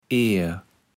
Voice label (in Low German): "Eer", kaevels platt